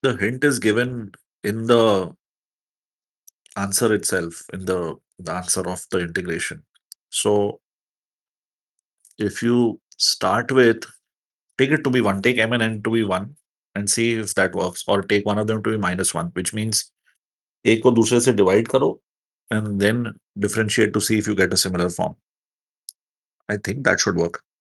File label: voice message; Indefinite integration, trig integral manipulation